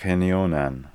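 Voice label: "Khenionan", pronunciations; Fourth Way; Beelzebub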